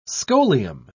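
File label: "scholium", British English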